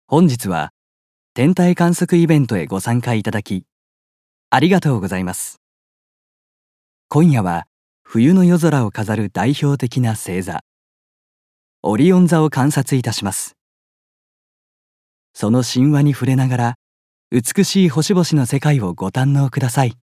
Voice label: プラネタリウムナレーション

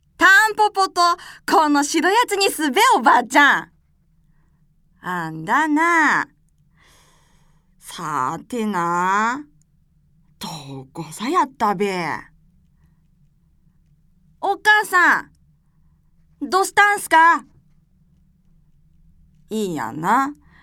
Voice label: 方言, 南部弁

